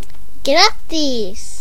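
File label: congratulation, Scottish Gaelic; gcompris-data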